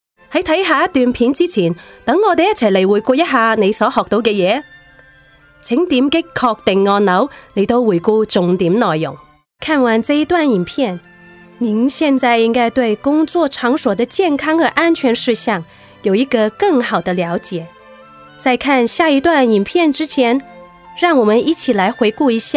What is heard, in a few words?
trilingual